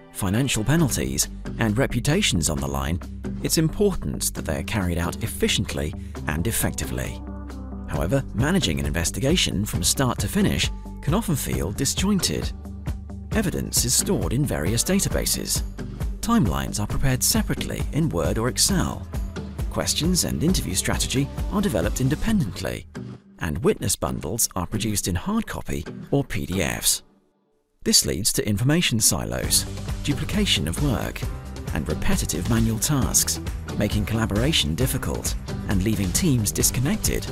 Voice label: Investigations explainer video voice over young UK male voice over artist; Opus 2